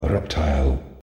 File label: announce